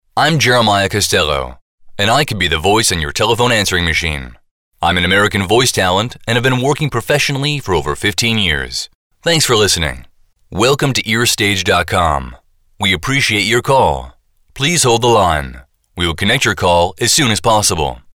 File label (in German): Sprecher Nativspeaker